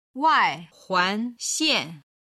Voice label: "waihuanxian", chinese